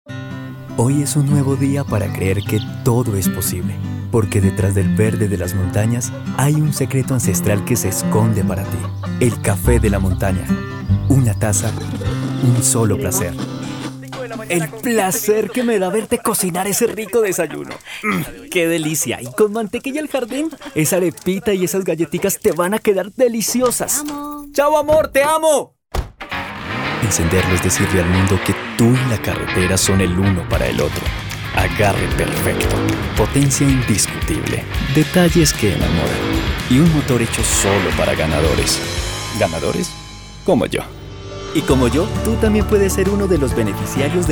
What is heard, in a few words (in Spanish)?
locutor colombiano